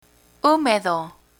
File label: "humedo", Spanish